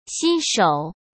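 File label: "新手", Mandarin Chinese; chinese flashcards; hsk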